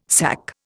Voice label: "SAC", Indian

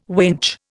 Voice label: "winch", Indian

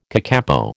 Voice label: "kakapo", American

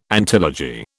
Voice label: "antilogy", British

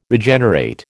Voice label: "Regenerate", American

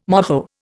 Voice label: "moho", Indian

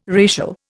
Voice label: "racial", Indian

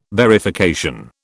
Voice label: "verification", British

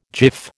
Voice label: "jiff", American

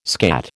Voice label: "skat", American

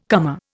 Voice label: "comer", Indian

